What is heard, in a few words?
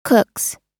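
"cooks", US English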